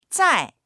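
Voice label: "zai4", Mandarin Chinese; 在; dictionary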